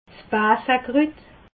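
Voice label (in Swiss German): "basekrut", Alsatian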